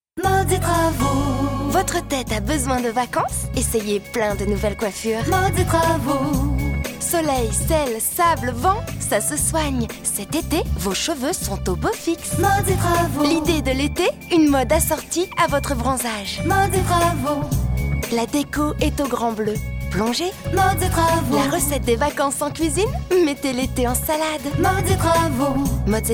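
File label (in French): bonne humeur nature, comédienne voix off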